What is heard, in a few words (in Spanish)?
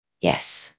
Voice Acting es F0